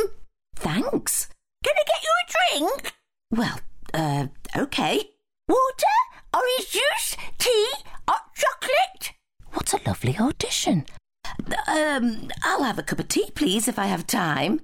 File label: Older Characters